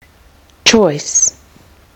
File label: "choice", kiwi english